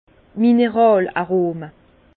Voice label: "mineral arome", Bas Rhin; vin; arômes minéraux

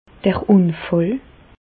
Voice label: "unfall", Bas Rhin; medecine; accident

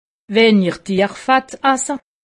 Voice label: "tierfett", alsace; medecine

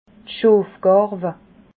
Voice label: "schofgarwe", alsace

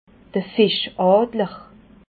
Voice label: "fischadler", Alsace

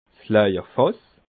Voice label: "laawerfass", alsace

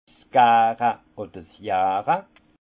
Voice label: "gare", alsace; vin; fermentation

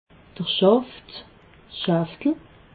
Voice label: "schaft", alsace

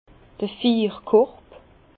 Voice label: "fiirkorb", Bas Rhin; vin; chaufferette

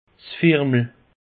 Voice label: "firmel", alsace; peche

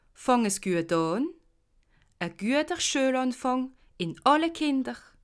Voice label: "fange", alsace

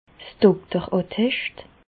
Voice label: "dokterattescht", Bas Rhin; medecine; certificat médical

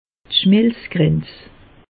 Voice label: "schmelzgrenz", alsace; meteo

